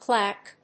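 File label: "clack", English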